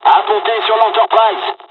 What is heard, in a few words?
landing, dialogs french; midway us; War Thunder